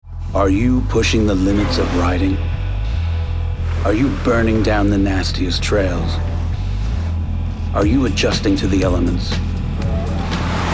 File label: Commercials